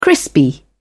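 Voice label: "crispy", British English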